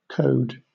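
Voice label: "code", British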